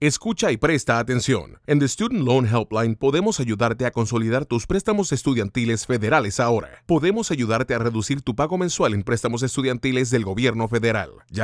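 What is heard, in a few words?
Spanish; univision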